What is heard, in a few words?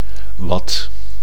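"wat", Dutch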